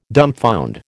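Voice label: "dumbfound", American English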